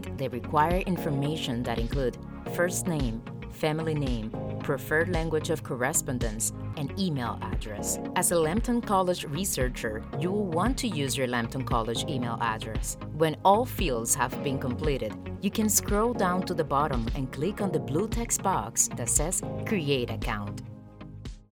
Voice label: Tutorial Ingles; Research & Innovation

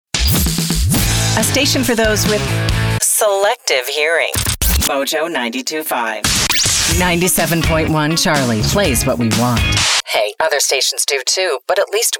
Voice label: Classic Hits Rock Imaging

